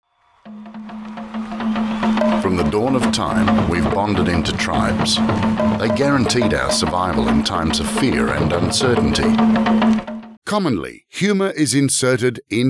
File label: english australian documentaries